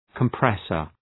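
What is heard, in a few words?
"compressor", English; {kəm’presər}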